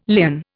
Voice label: "lien", Indian